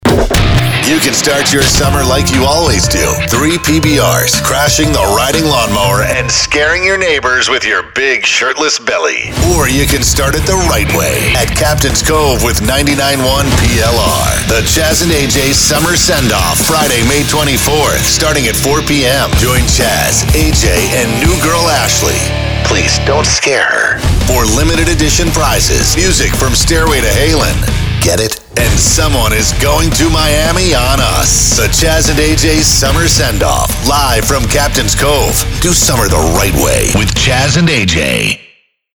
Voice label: PROMO; MAY 13 SUMMER SEND OFF